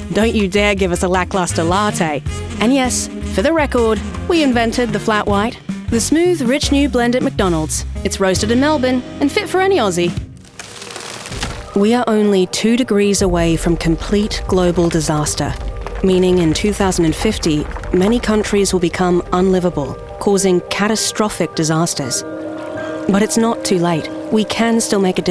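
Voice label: Australian commercial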